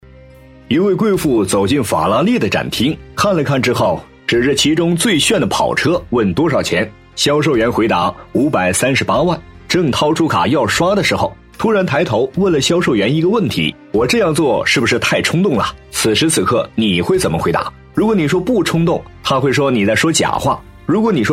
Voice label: 抖音小故事风格

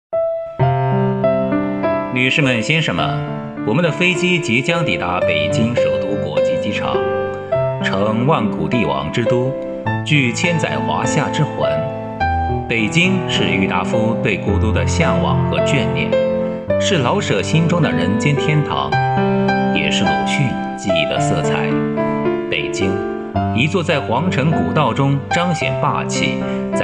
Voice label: 机场电台; 快乐旅程

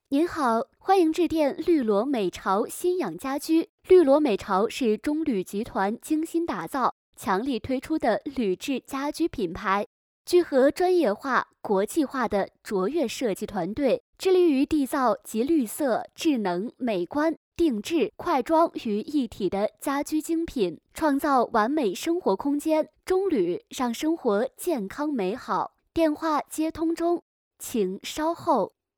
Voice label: 彩铃

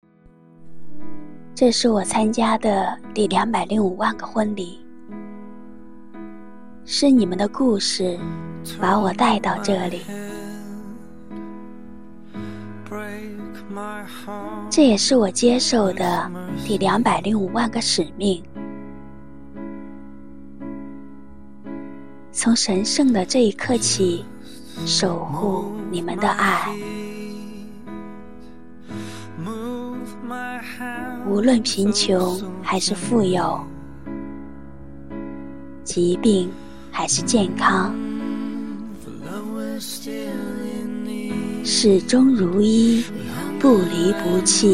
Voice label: 浪漫戒指广告

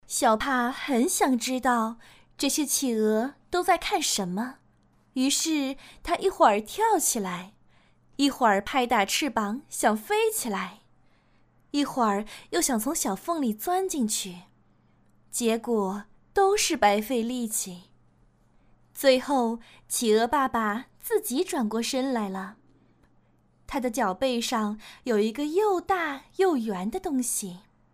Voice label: 读绘本

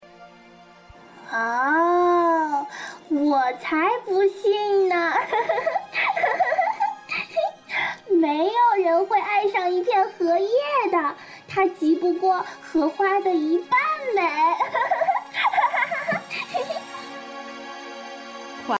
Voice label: 开心

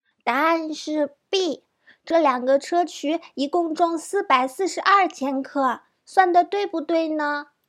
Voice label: 童声

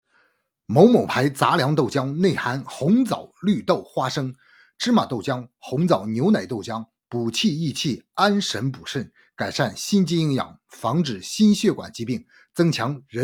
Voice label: 广告

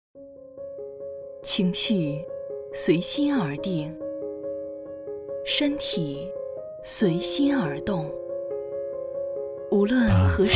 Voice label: 广告红糖姜茶

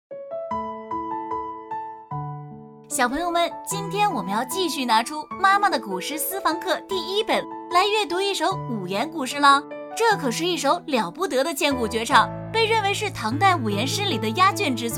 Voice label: 轻松氛围版; 登鹳雀楼

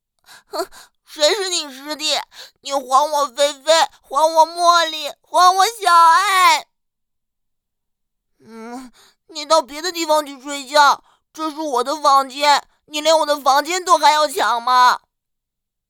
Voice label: 正太音

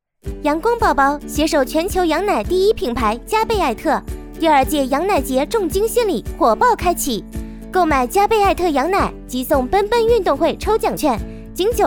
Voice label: 母婴店活动广告; 阳光宝宝

